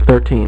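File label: "thirteen", male; MacCribbage; InterStellar MacCribbage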